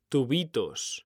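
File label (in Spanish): "tubitos", Spanish, male; mekanta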